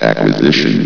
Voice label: "acquisition", vox

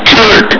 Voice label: "kick!", hgrunt

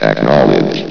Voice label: "acknowledge", vox

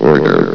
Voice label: "order", vox

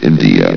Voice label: "india", vox

